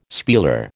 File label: "spieler", American